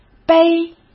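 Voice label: "碑", Mandarin Chinese; chinese-flashcards; hsk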